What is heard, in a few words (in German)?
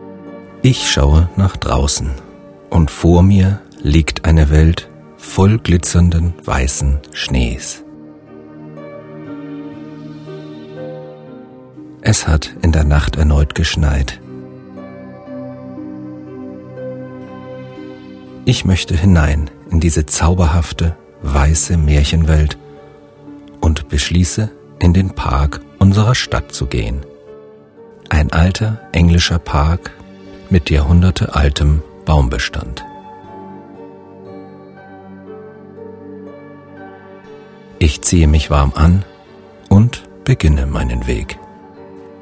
entspannung weihnachten traumhafte winter phantasiereisen, männliche Stimme; Spaziergang durch den winterlichen Park; Mastering: SyncSouls Mastering, Hamburg